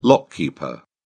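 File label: "lock keeper", English, British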